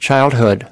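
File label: "childhood", English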